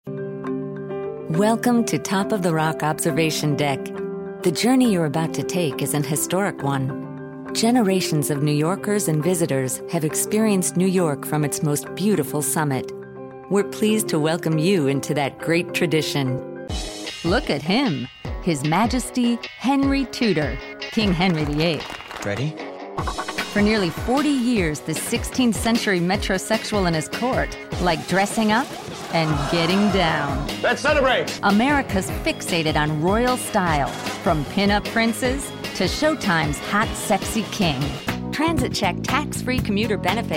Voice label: narration